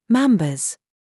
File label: DRESS TRAP, minimal pairs